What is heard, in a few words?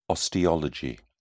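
"osteology", British English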